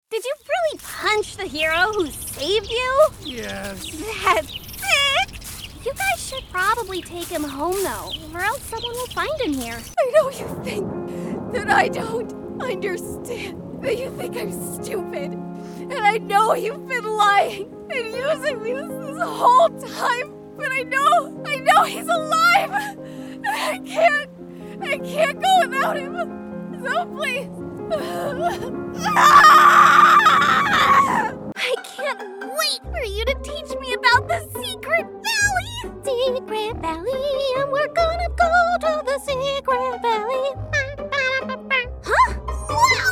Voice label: Character